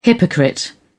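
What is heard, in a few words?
"hypocrite", British English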